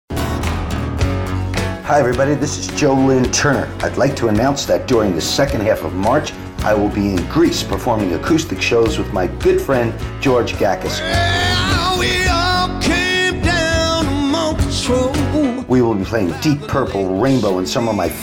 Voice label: RADIO SPOT